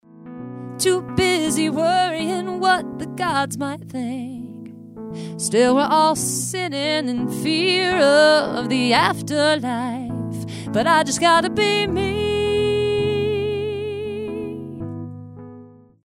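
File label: Vocal Processed; VoiceTone T1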